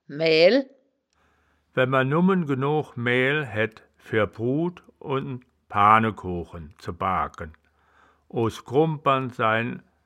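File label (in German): "maehl", Wittlicher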